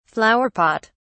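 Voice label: "flower pot", British English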